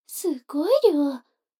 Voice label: 女の子台詞

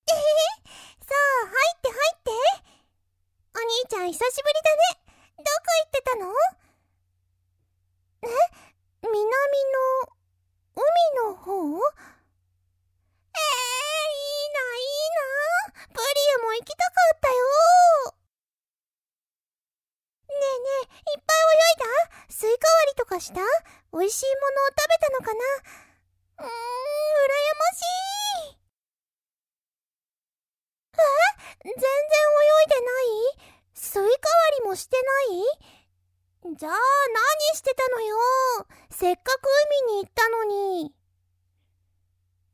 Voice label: ボイスのみ; プリエ

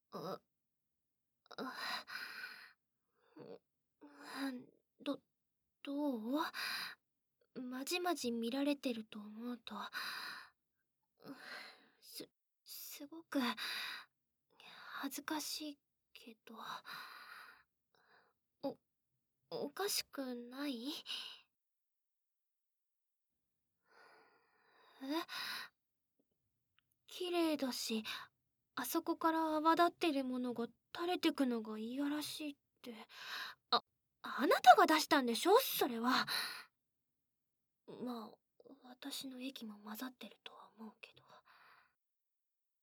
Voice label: バイノーラル; 意地悪なあなた……♪; エッチパート

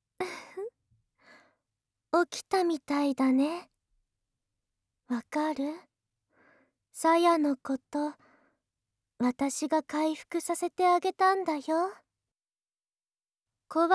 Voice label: 暗示(同時責めループ), ASMR

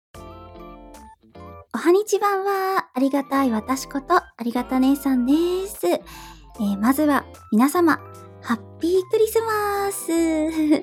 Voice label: フリートーク, ASMR

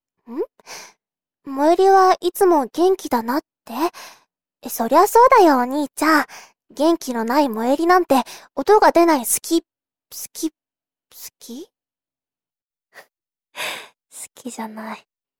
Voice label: NGボイス, ASMR

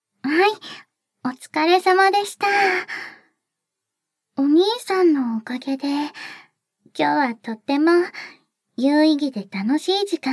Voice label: お別れの挨拶, ASMR

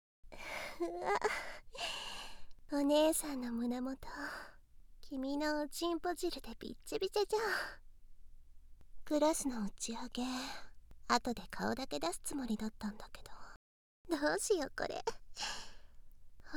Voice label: 誘惑ささやき耳舐めと処女マ〇コまたがり素股, ASMR

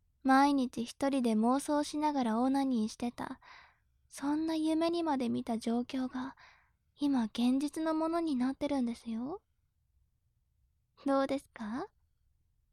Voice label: 調教中音声, ASMR

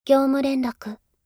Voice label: 業務連絡, システムボイス; おまけ (Windows等向けシステムボイス)

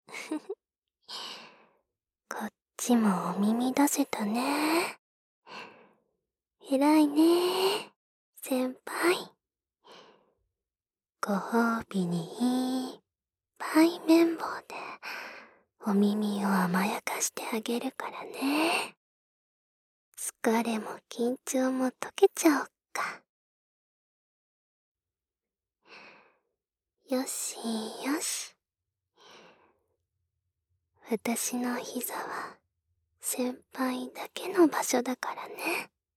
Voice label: 右耳 声のみ; すーぱーあまえたいむ; 声のみver

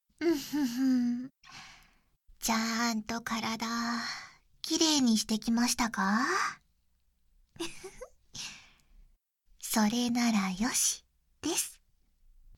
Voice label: 囁き手コキで寸止め射精管理（バイノーラルマイク収録 効果音あり大きめ）, ASMR

